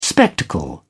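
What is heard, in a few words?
"spectacle", English, British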